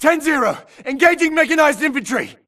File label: grunt engaging Spectre; imc; Titanfall 2